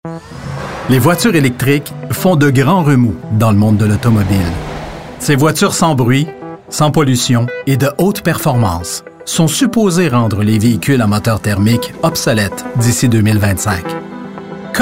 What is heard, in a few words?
french canadian documentaries